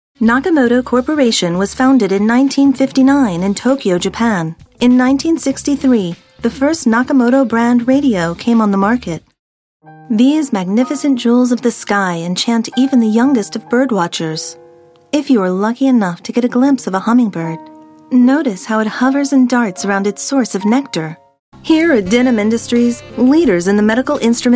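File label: American, narration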